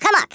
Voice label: "COME ON THEN", Africaan, Speech; Worms Armageddon